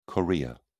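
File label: "chorea", British English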